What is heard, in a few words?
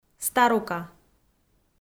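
"старука", Komi, female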